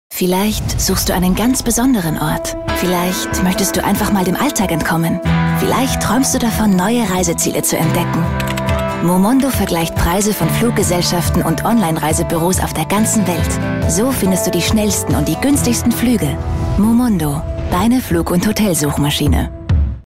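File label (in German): Austrian, Fremdtake Sanft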